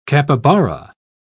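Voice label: "capybara", English, British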